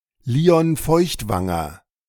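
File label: "Lion Feuchtwanger", German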